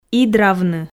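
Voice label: "идравны", Komi, female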